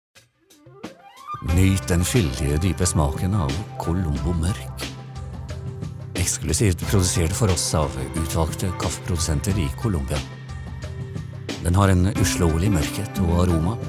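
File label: Norwegian soft sell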